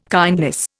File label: "kindness", Indian